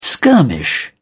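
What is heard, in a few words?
"skirmish", British English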